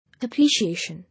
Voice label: "Appreciation", English